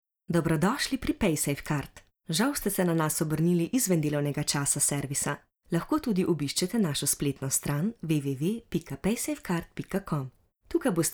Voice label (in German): Slowenisch, Sprecher; Paysafecard